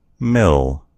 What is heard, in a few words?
"mill", en US; gcompris